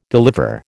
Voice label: "deliver", American

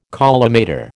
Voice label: "collimator", American

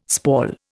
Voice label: "spall", Indian

